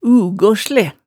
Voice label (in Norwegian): "ugohsle", hemsedalsmaalet tale